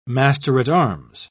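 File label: "master-at-arms", British English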